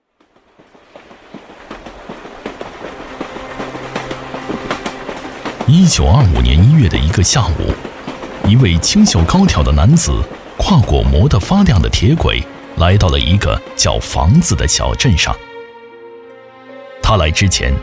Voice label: 讲述记录片

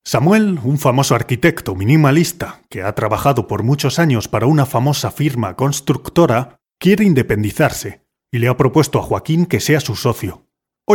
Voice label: 西班牙男课件

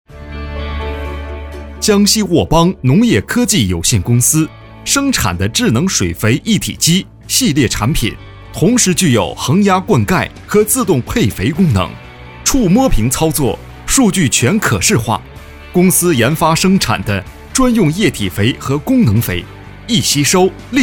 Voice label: 政企宣传

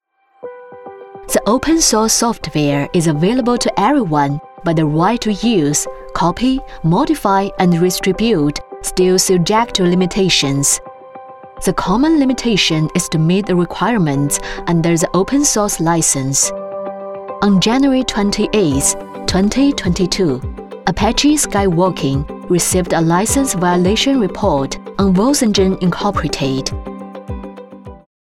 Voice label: 英文科技专题

